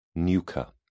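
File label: "nucha", British English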